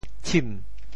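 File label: "tshim2", Teochew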